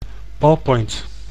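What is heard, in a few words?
"ballpoint", Dutch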